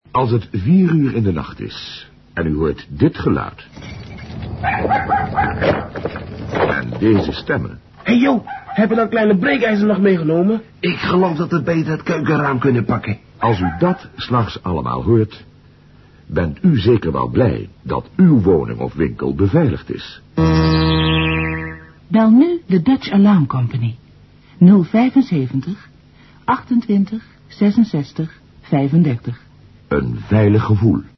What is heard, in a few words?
commercial